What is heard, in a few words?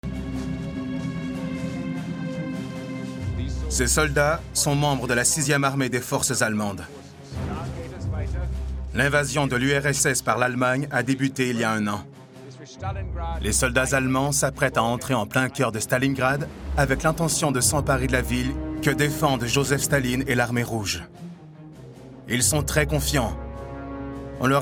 Narration